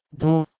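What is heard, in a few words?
"dhuh2", Proto-Indo-European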